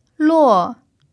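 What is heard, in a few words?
"luo" fourth tone, chinese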